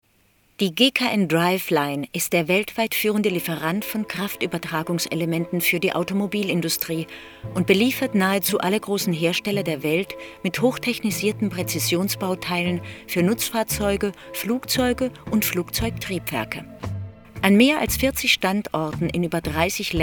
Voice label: Imagefilm

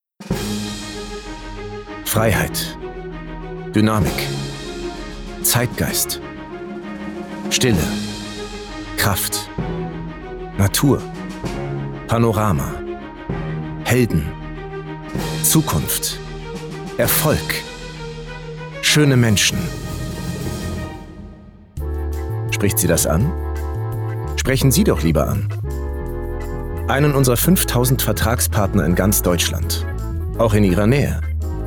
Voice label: Spot Autohaus

